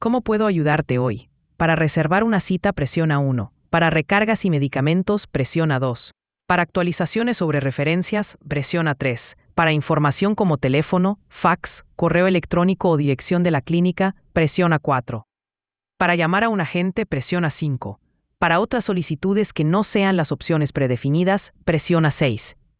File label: question spanish